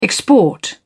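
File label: "export", British English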